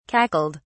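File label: "cackled", British English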